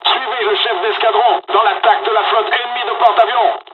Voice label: flight, dialogs french; War Thunder